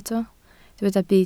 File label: "byd", Welsh